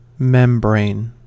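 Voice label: "membrane", American English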